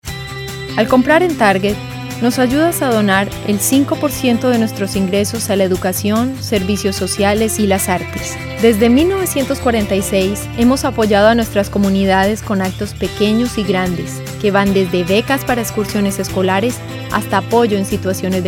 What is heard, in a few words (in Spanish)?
SP, spanish females